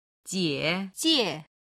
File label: "jie3jie5", Chinese